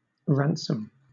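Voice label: "ransom", British English; pronunciations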